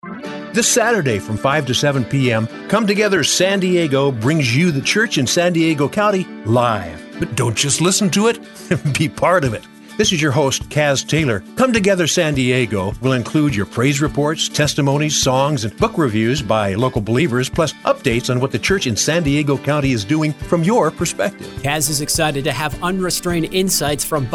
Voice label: Promo